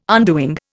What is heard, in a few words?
"undoing", Indian